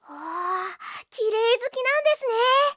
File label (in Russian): zvuki s golosom iaponskoi anime devushki